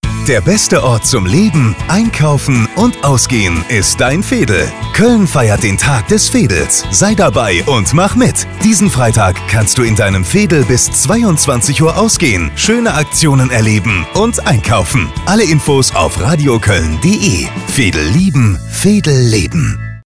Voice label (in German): radio koeln werbung